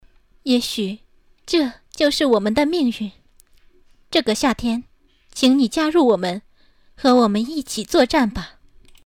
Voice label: 妩媚, 女, 青年, 普通话

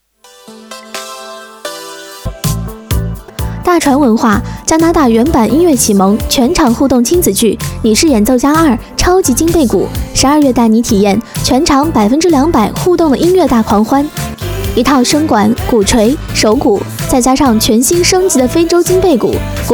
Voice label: 超级金贝鼓口播加配乐; 你是演奏家2

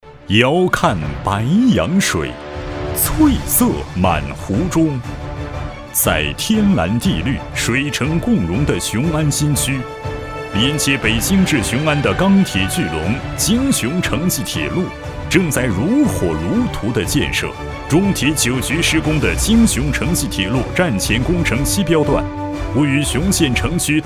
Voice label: 大气力度; 京雄城际铁路

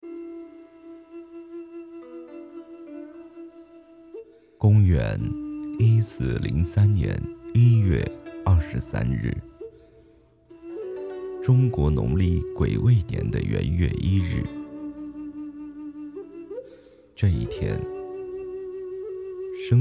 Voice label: 历史纪录片

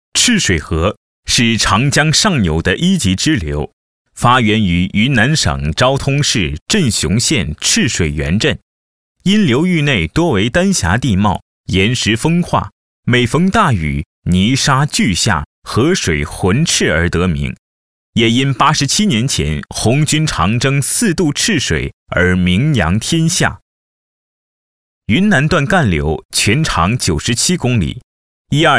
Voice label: 专题纪录片解说

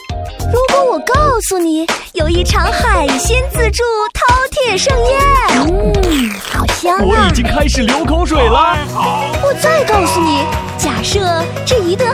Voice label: 经济宣传; 福建

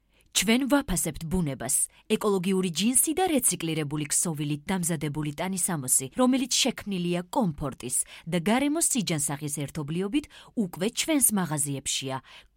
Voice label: 格鲁吉亚语女

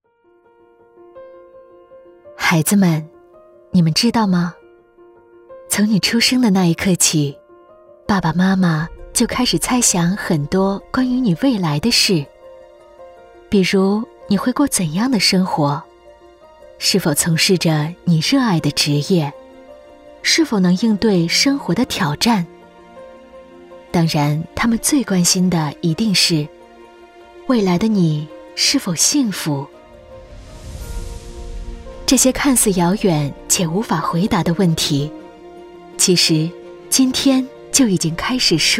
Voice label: 宣传片儿童教育; 伊顿善育

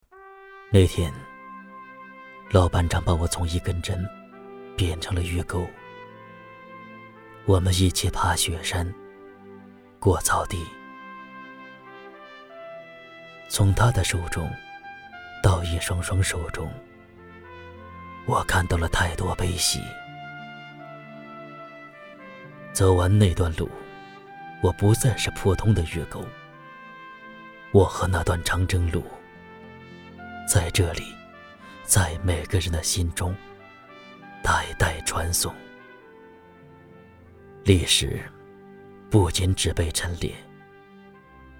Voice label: 公益广告

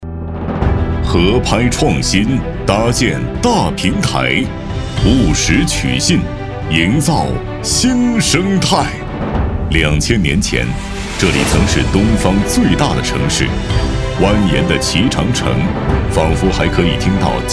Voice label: 专题企业政府宣传大气宽广

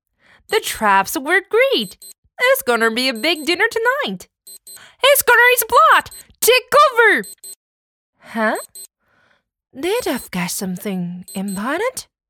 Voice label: 女主

